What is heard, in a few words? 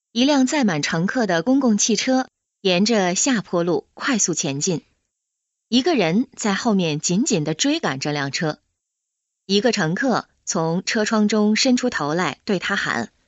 Mandarin